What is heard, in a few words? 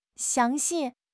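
"xiang2 xi4", Chinese; HSK4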